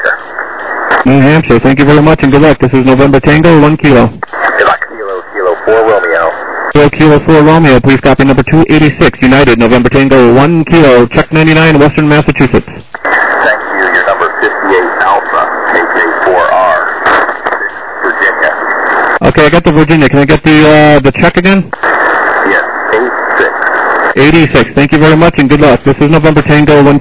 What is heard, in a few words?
SSB LSB 7MHz